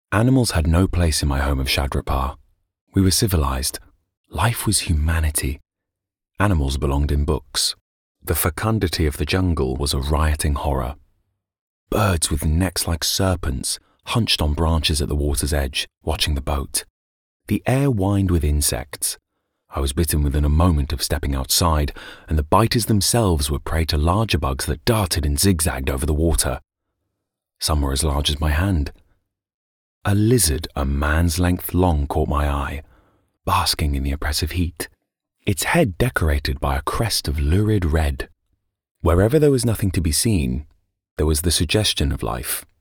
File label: Audiobook; CAGE OF SOULS